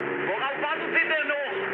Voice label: attack position, mood high, speech ge